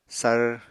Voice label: "sar", apatani